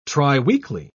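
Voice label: "triweekly", British English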